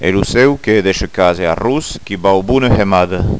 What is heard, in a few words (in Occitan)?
"hemada", Gascon